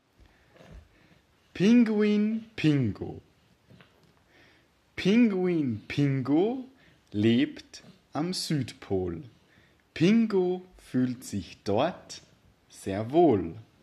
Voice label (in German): gedicht pinguin pingo; corona material